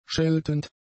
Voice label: "scheltend", german; adjectives

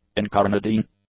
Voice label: "incarnadine", English; adjectives

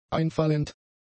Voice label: "einfallend", German; adjectives

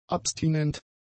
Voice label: "abstinent", german; adjectives

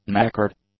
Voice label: "knackered", english; adjectives

